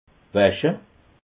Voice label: "wasche", alsace

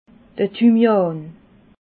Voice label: "thymian", alsace

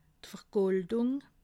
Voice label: "vergoldung", alsace

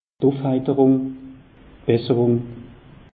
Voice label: "ufheiterung", alsace; meteo

